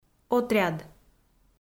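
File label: "отряд", Komi-Zyrian, female; audio dict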